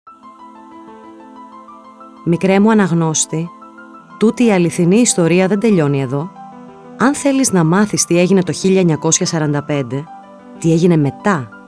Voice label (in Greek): Audiobook